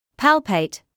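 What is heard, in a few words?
"palpate", British English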